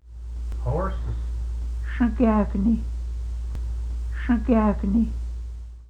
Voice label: horse, quapaw words